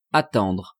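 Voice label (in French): "attendre", French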